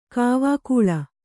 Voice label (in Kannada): "ಕಾವಕೂಲ", Kannada